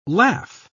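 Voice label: "laugh", spoken word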